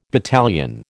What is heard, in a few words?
"battalion", American